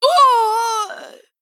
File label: Female Night Elf Death